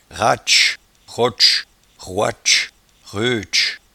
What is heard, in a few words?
"ratche", patois jura